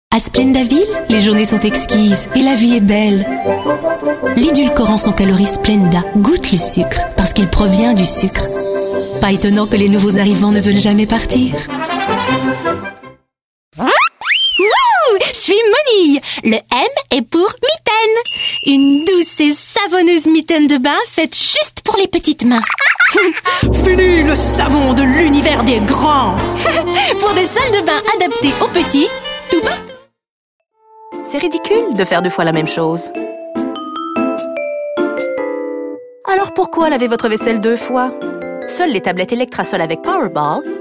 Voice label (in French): pub voix